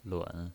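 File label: "lun", pinyin, Mandarin Chinese, third tone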